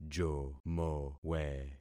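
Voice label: "jomowe", concatenated syllables; Affixing experiment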